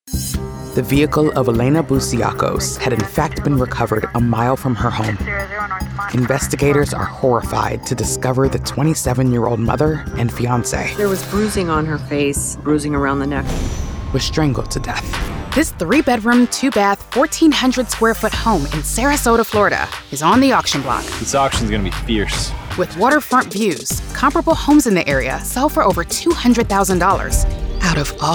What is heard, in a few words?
TV Narration Documentary Reality True Crime Nature Healthcare Game Show